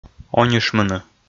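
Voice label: "онишмыны", Komi, male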